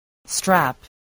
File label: "strap", spelling